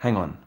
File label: "hang on", male; Voice